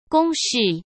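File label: "公事", Mandarin Chinese; chinese flashcards; hsk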